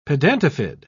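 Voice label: "pedatifid", English, British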